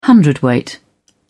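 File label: "hundredweight", English, British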